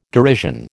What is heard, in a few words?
"derision", American